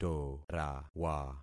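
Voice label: "torawa", concatenated syllables; Affixing experiment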